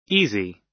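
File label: "easy", American English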